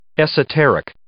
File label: "esoteric", English